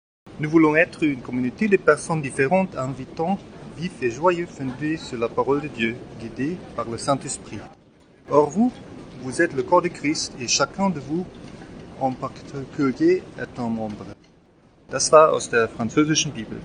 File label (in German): Franzoesisch; Wir möchten eine Gemeinschaft